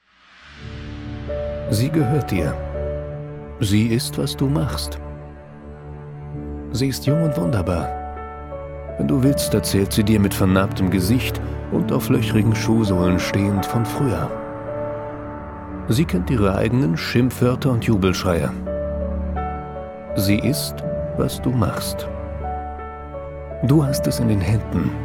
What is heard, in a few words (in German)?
image atmosphaerisch